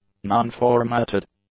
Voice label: "non-formatted", english; adjectives